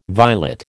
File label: "violet", American